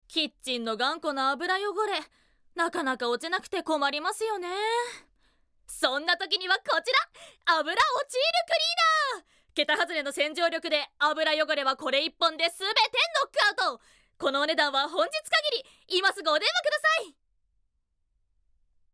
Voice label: Narration